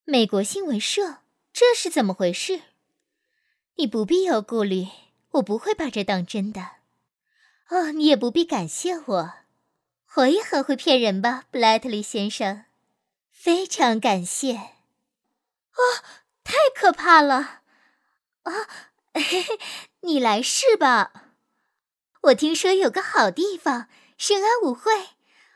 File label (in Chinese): 女 电影译制; 罗马假日